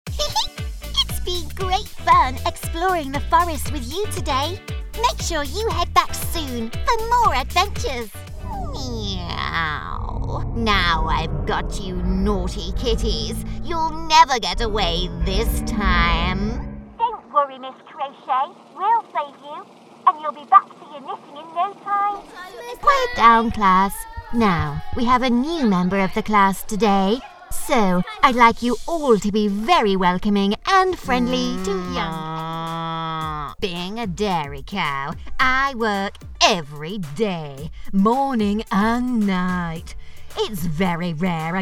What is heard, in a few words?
Character